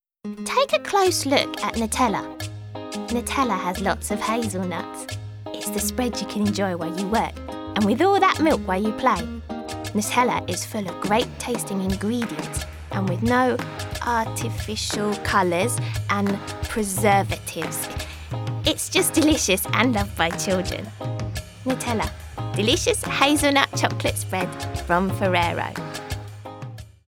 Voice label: Kids voice ad; Nutella